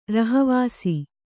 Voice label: "ರಹಿವಾಸಿ", kannada